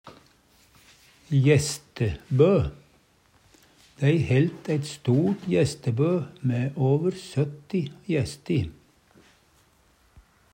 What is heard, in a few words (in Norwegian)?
jestebo, Numedalsmål